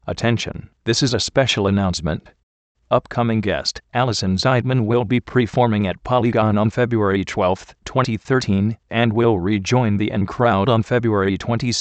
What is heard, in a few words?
announcement